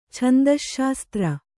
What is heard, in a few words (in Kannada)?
"ಛಂದಶ್ಶಾಸ್ತ್ರ", Kannada